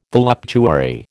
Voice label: "voluptuary", American

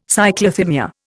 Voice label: "Cyclothymia", Indian

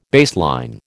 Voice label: "baseline", American

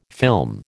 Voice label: "film", American

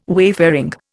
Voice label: "wayfaring", Indian

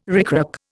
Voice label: "ricrac", Indian

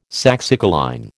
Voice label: "saxicoline", American

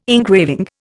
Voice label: "engraving", Indian

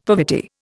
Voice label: "poverty", Indian; Dictionary

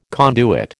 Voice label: "conduit", American

